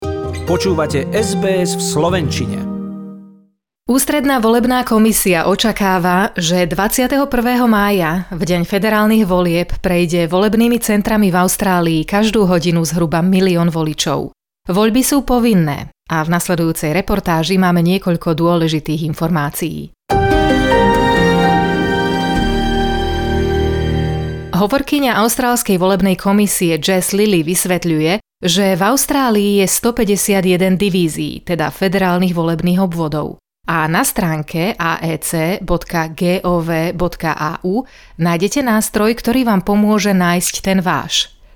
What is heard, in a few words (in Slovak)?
slovak; sbs